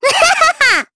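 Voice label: Vox Happy Japanese; Miruru; kings raid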